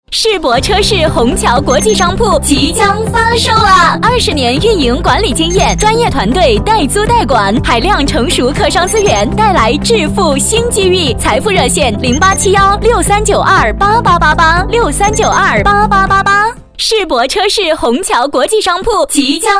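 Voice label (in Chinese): 广告电台汽车广播车市; 世博车市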